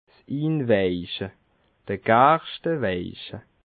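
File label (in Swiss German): "gerschteweiche", Alsatian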